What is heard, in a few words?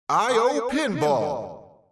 pinball voice over; pinball audio